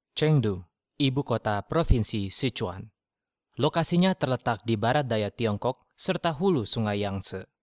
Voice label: Indonesia male